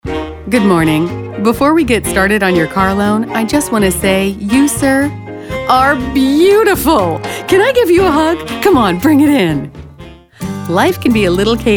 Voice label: Commercial